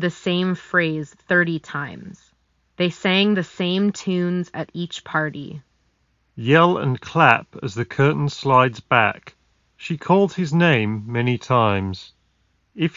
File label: Ideal microphone sample; Master Dynamic MH40